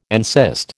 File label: "encyst", American